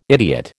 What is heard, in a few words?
"idiot", American